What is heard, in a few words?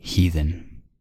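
"heathen", US English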